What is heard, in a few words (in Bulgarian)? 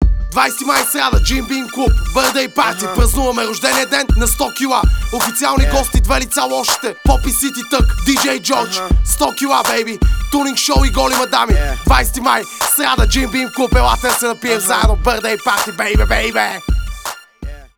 reklama